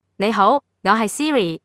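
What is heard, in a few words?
Hong Kong Chinese; iOS Sounds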